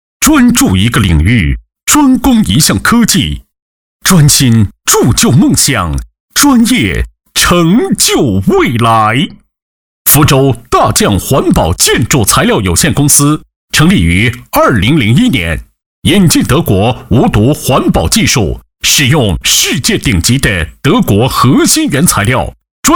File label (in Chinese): 男 专题干音; 央视大气专题; 福州大匠环保公司